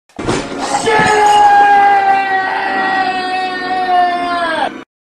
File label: rage shiiiiit